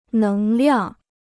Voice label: "能量", Chinese

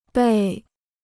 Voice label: "背", Chinese

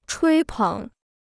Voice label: "吹捧", Chinese

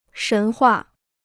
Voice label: "神话", Chinese

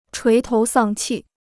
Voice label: "垂头丧气", Chinese

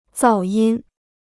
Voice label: "噪音", Chinese; zào yīn; rumble; noise

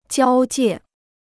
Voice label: "交界", Chinese

